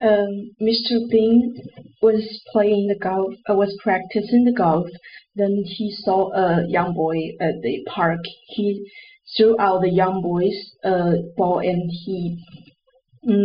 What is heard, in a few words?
English